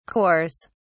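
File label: "cores", British English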